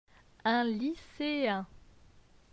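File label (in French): "Un lyceen", French; phonetique; les voyelles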